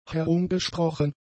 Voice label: "herumgesprochen", German; adjectives

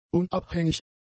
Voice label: "unabhängig", German; adjectives

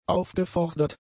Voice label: "aufgefordert", German; adjectives

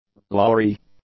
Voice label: "lorry", English; nouns

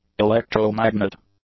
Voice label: "electromagnet", English; nouns

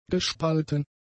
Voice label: "gespalten", German; adjectives

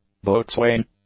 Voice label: "boatswain", English

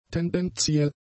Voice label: "tendenziell", German; adjectives

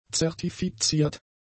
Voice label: "zertifiziert", German; adjectives

